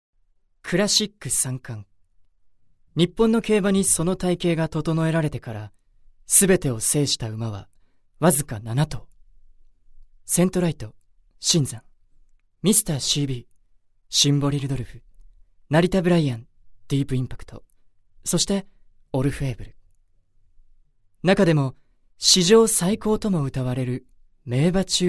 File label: narration